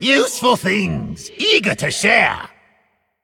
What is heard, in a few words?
Male Demon